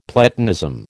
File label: "Platonism", American